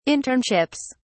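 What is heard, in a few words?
"internships", British English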